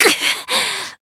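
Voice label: Voice damaged Japanese; clarte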